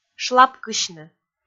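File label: "шлапкысьны", Komi, female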